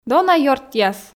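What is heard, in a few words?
"дона ёртъяс", Komi, female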